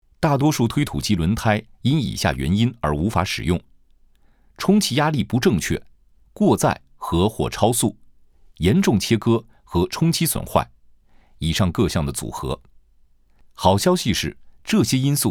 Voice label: chinese mandarin e-learning

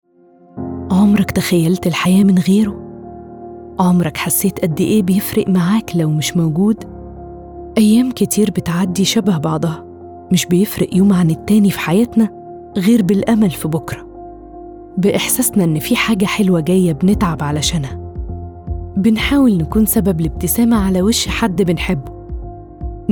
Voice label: arabic promos

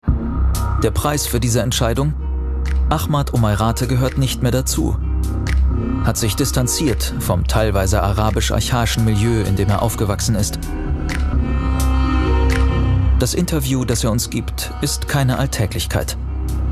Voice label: german documentaries

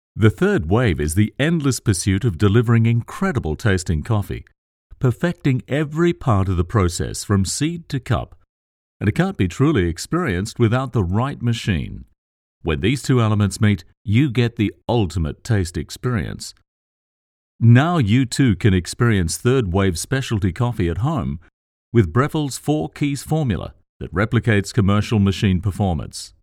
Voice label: english australian commercial

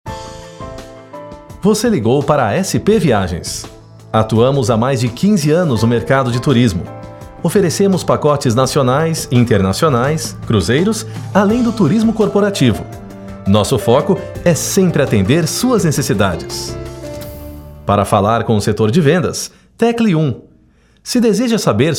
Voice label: Portuguese Brazilian ivr

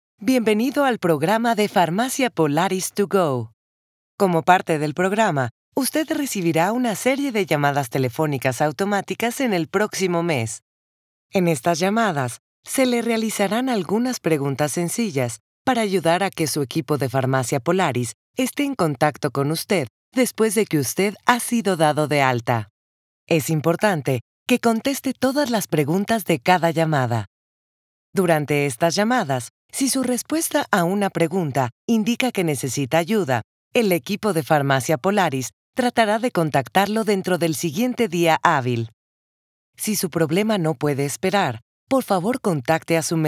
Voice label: IVR; Polaris

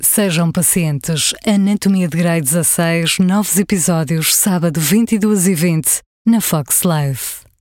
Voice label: portuguese tv shows

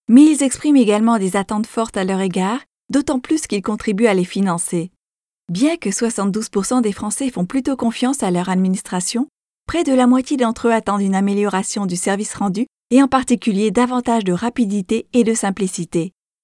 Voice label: french corporate videos

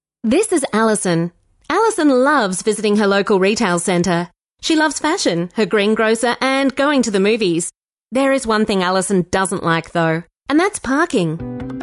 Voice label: english australian animation

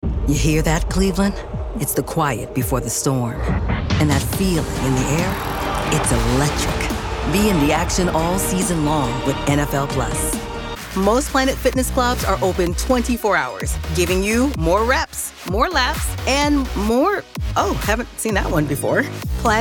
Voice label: english american, american voice actors

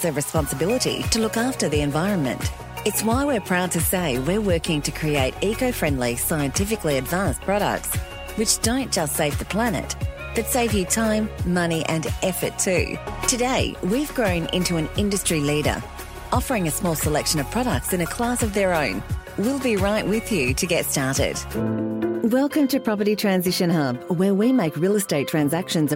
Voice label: english australian ivr

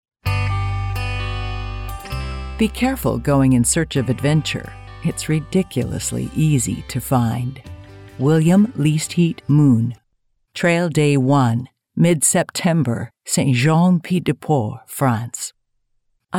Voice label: english american audiobooks

